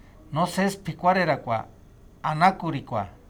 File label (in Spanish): Anafilaxia, Purhepecha